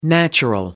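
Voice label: "natural", English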